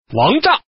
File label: "wangzha", man